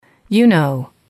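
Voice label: you know, female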